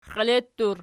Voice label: xielettur nom, archi